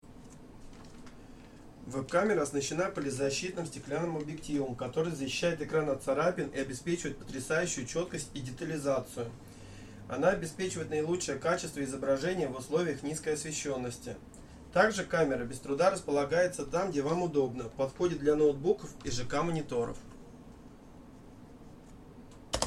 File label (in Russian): Запись голоса 2 метра в движении